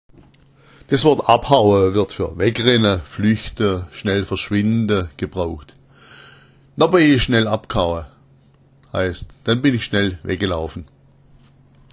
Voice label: abhaua, schwaebisch

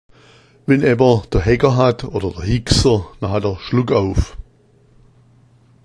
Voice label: haegger, schwaebisch

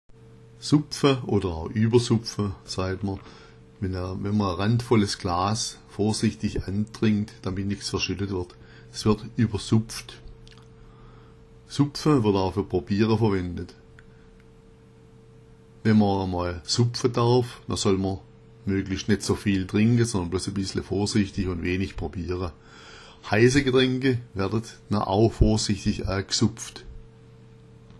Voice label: "supfa", schwaebisch; auch übersupfa. Ein randvolles Glas wird vorsichtig angetrunken, damit nichts verschüttet wird – es wird übersupft.

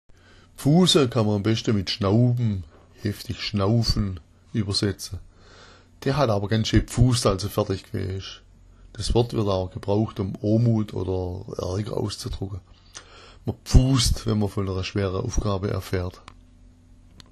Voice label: pfusa, schwaebisch

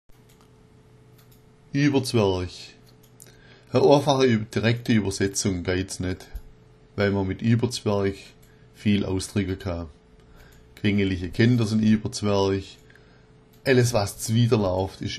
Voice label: ieberzwerch, schwaebisch

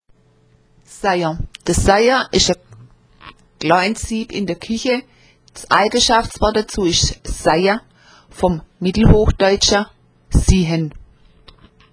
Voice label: seiher, schwaebisch

